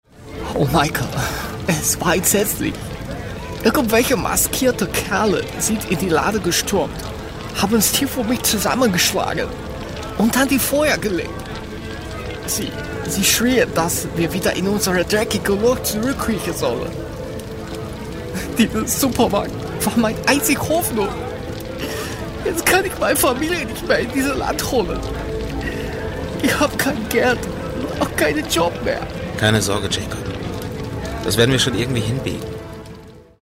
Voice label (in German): Akzent Chinesisch